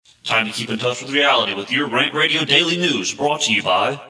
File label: Vocal Intro Altered; RantRadio Show Demos